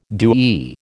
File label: "DoE", American